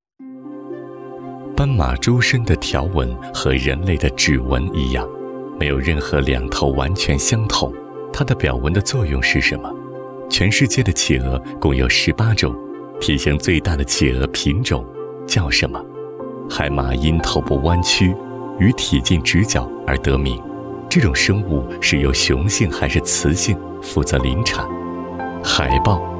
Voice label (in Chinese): 男 纪录片 模仿动物世界